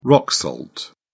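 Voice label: "rock salt", British English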